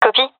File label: affirmation, gendarmerie fem; vo; agents